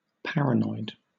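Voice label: "paranoid", British English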